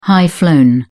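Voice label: "high-flown", English, British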